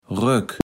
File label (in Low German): "Rök", Platt